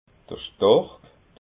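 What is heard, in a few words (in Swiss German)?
"stork", Alsatian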